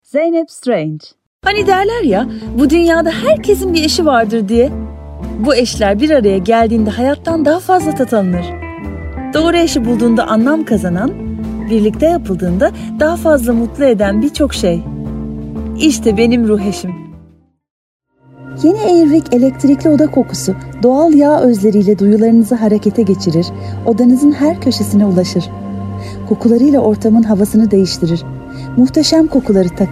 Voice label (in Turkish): turkce kadin